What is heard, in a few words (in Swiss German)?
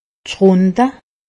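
"schrunde", Alsatian; medecine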